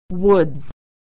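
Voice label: "frost woods", American English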